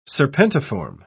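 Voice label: "serpentiform", British English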